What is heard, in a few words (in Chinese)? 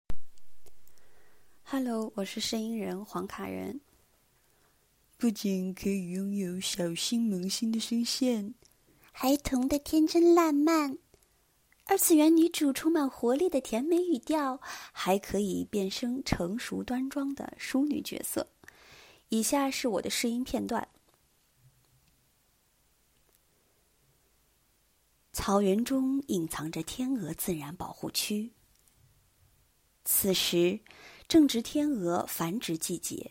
个人介绍纪录片配音